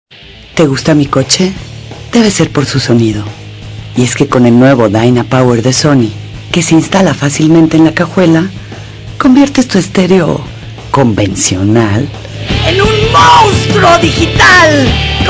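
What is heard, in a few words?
spanish female voice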